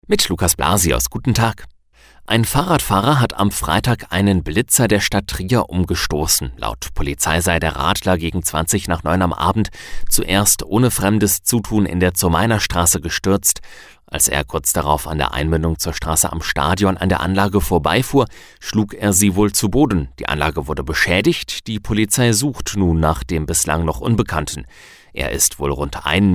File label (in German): Nachrichten